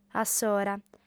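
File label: "assora", lingua sarda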